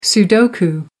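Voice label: "sudoku", British English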